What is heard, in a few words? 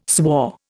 "swore", Indian